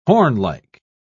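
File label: "hornlike", British English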